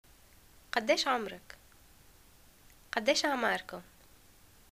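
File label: how old r u, Tunisian Arabic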